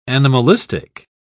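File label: "animalistic", British English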